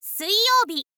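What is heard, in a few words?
"suiyoubi", Japanese, girl; voice; info girl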